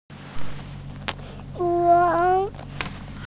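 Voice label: "wan2", Mandarin Chinese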